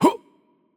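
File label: Romanian AttackLight; bloom minigame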